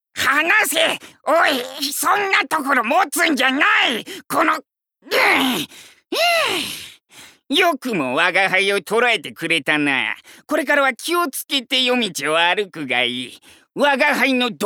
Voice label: male voice